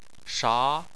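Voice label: "sha" tone 2, Chinese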